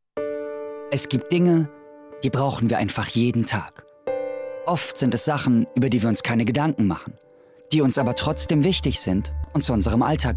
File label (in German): imagefilm; onskinery